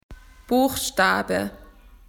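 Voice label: "letter", Australian English; PictureDictionary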